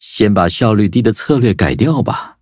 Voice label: defeat, zh-hant; cookie run